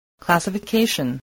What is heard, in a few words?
"classification", voice